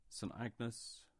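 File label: "St Agnes", English; place-names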